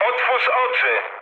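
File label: player friendly fire, mood low, Polish speech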